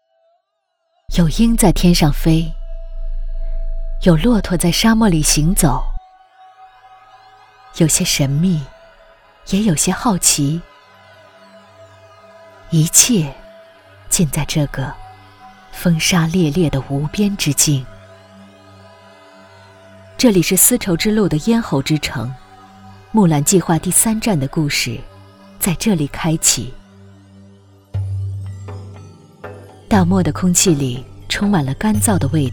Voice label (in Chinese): 纪录片大漠敦煌